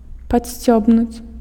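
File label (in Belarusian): "падсцёбнуць", Belarusian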